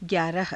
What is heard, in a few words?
"gyaaraha", Hindi